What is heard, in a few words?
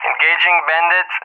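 "engaging bandit", English, RUSSIA; Messages; DCS; Allied Flight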